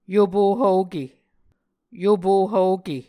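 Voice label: "yopo hvoke", Muscogee words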